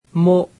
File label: japanese alphabet "mo"